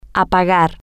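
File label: to turn off, Spanish, female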